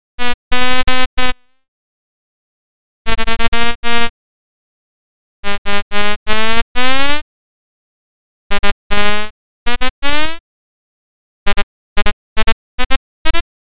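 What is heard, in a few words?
sound effect of robot voice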